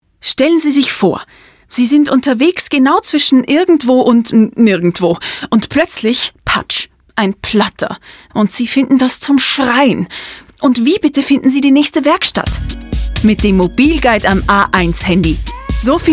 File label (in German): Radiospot (DE); „A1 Mobilguide“